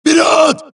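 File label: suppress, dialogue, Allies; mohaa; Mission 3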